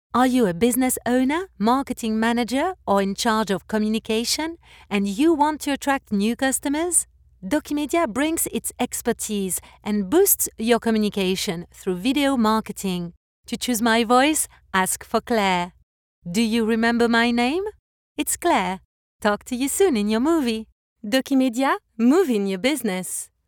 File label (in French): version Anglaise; Dokimedia